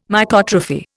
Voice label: "Mycotrophy", Indian

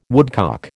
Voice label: "woodcock", American

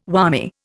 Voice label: "whammy", Indian

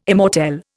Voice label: "immortelle", Indian

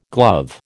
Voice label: "glove", American

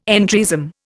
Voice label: "entryism", Indian

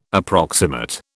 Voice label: "approximate", British

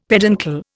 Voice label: "peduncle", Indian; Dictionary

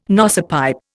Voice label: "nosepipe", Indian

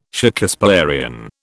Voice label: "Shakespearian", British

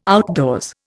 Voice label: "outdoors", Indian

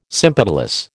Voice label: "sympetalous", American

